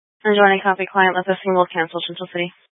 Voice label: Fire Dispatch; C- Chandler

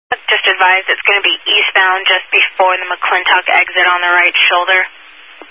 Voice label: Highway Patrol, Phoenix Metro East Area, scanner

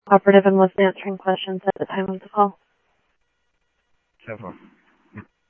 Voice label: Tempe Police, scanner audio; TEMPE PD A04

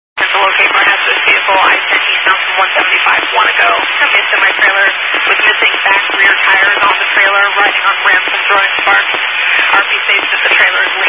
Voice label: Highway Patrol, scanner; DPS; Dist 06 Casa Grande

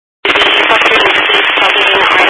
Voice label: Highway Patrol, scanner; DPS

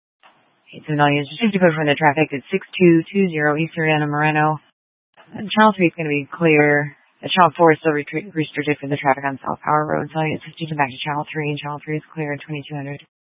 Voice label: Mesa PD Dispatch

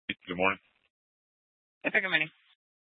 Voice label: Dispatch; Scottsdale

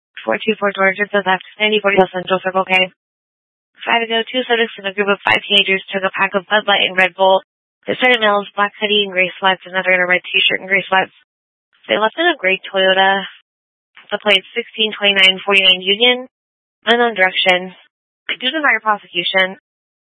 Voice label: Police Dispatch

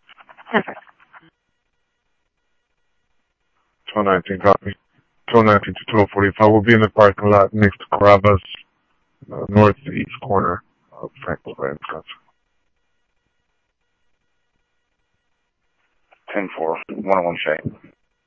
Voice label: Scottsdale Police, scanner audio

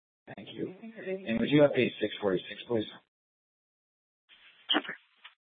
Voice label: Mesa PD Central Dispatch, Scanner

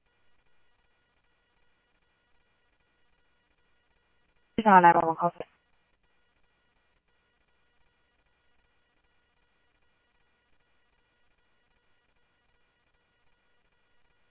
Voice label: Police, scanner audio trunk; H-Scottsdale

